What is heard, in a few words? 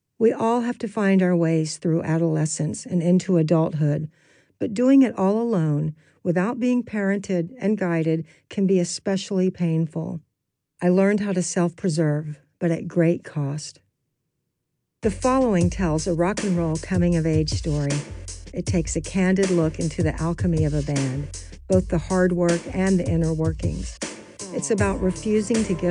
audiobook